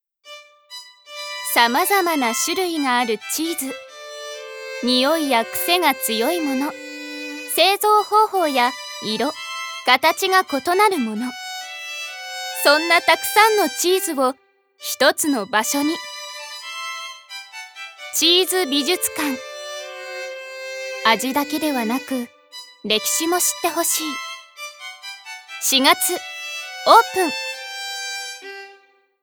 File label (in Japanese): ナレーション